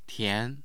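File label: "tian2", Mandarin Chinese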